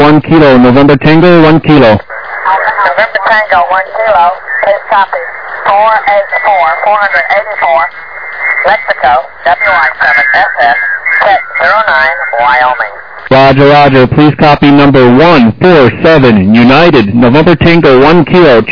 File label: USB, 14MHz